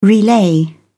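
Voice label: "relay", British English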